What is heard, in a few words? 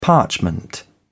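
"parchment", British English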